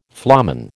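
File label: "flamen", American English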